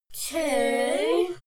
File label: kids counting "two"